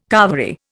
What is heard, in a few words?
"carvery", Indian